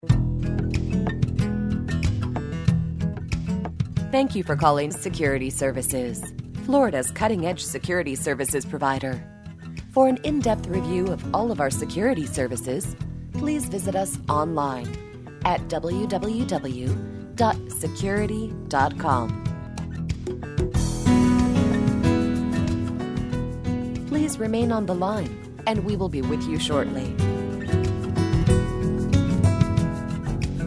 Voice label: Generic On Hold Message